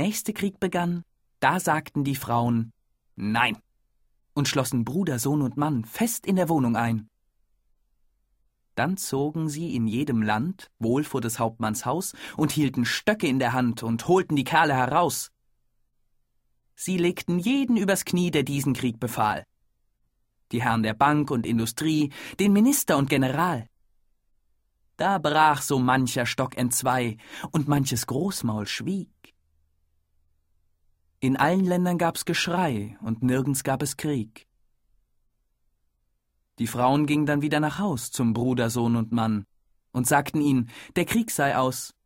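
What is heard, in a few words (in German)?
Hörprobe Gedicht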